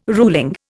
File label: "ruling", Indian